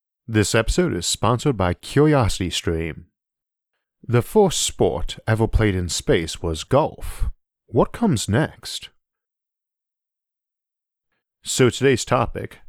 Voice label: narration only; isaac arthur; space sports